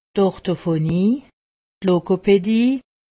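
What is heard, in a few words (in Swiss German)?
"orthophonie", Haut Rhin; medecine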